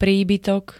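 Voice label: "príbytok", Slovak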